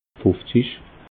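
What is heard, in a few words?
"fufzig", Alsatian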